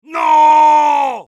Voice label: jeers, es; Heavy

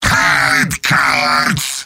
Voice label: battlecry; Heavy

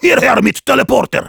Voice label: Heavy need teleporter, de; Hierher mit Teleporter.

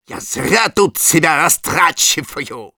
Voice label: jeers, ru; Medic

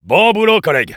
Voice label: engineer good job, fr

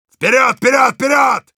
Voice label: Heavy, ru; «Вперёд! Вперёд! Вперёд!»

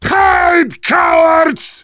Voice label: battle cry

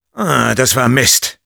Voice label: jeers, de; Sniper; "Ahh, das war Mist!"

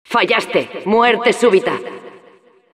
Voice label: Announcer failed prepare sudden death es

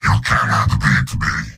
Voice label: Heavy domination

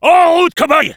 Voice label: battlecry, fr; En route cowboy !; Engineer

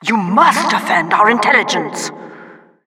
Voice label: Announcer defend intel; Administrator

